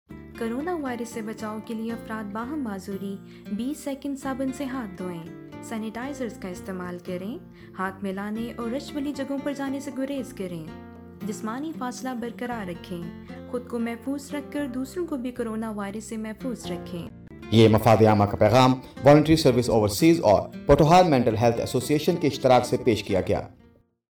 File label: Public Service Message; coronavirus covid-19 pandemic